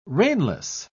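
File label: "reinless", British English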